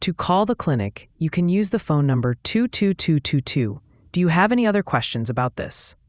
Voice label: question english